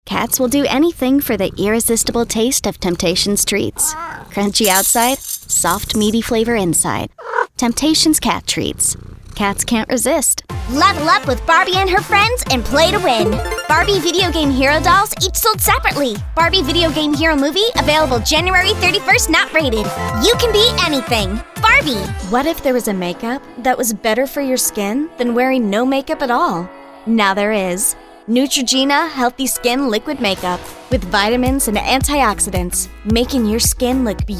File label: Commercial